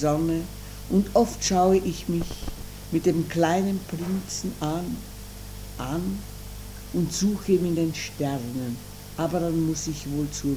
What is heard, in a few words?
Meditation